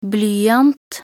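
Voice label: "blyant", Norwegian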